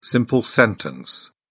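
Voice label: "simple sentence", British English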